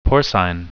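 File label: "porcine", English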